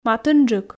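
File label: "матынджык", Komi, female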